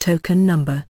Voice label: token, english; qms intuit